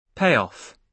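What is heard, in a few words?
"payoff", British English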